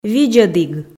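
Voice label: "видзӧдіг", Komi, female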